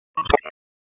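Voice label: Police Southwest District Tac, radio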